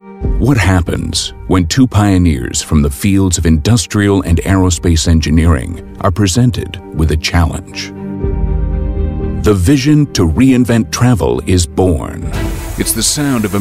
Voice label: Narration; TaDa